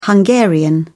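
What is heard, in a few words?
"hungarian", English, British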